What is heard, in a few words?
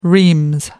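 "reams", British English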